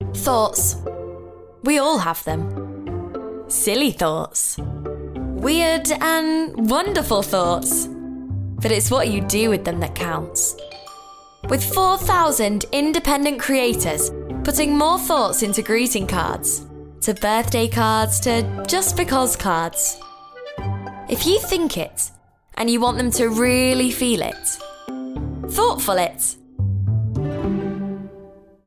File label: female English Yorkshire accent commercial